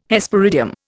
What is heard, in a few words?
"Hesperidium", Indian